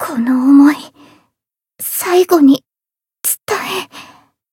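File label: retire, Japanese; azami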